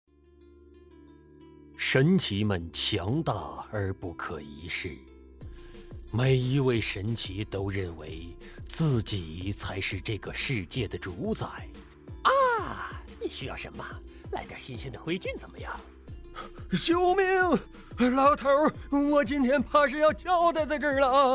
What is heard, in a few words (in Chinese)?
游戏类配音样例